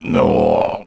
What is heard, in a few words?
Mumble; Salvatore; zelda sounds